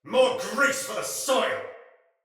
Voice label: See Player Shout, Soldier Male; Sinecure Soldier Male A